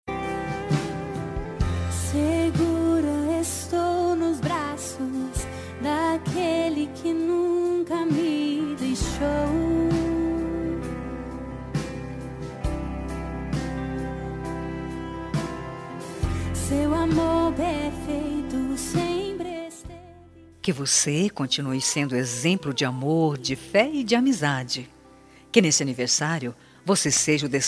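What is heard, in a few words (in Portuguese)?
birthday IRMAO PLURAL female, telemensagem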